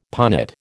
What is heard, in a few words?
"punnet", American